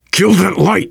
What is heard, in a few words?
kill that light, voice, biker; Left 4 Dead 2; survivor